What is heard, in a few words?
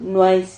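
"nuais", Spanish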